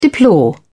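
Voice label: "deplore", English, British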